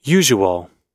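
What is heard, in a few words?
"usual" English word; oxford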